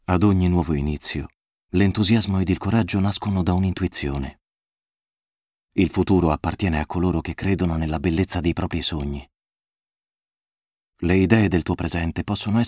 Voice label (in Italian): Spot, Italian, male; commercial